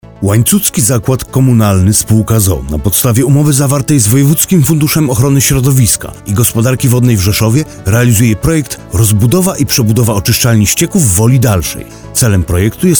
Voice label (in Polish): Spot radiowy; promujacy projekt sierpien wrzesien 2014